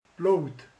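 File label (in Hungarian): "vér", Hungarian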